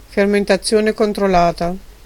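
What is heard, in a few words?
"fermentazione controllata", Dutch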